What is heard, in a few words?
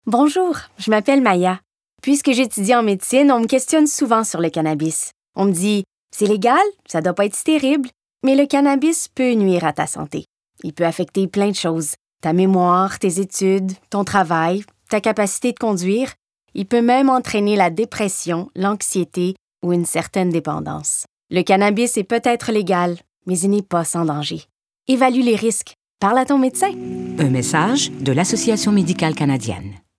FRENCH; CANADIAN MEDICAL ASSOCIATION CANNABIS